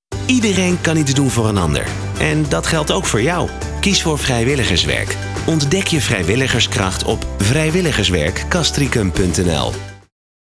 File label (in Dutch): commercial; Vrijwilligerswerk Castricum